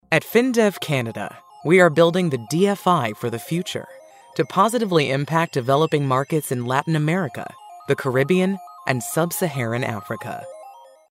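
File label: Explainer financial industrial corporate video marketing fresh intelligent, Female Voice Over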